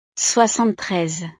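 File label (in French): "soixante-treize", French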